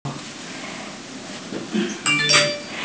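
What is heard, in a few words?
"wan2 ju4", Mandarin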